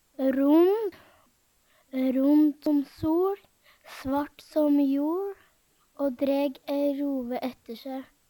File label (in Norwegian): ronn, Numedalsmål; Adjektiv; Veggli